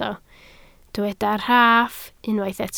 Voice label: "rhaff", Welsh